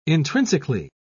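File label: "intrinsically", English, uk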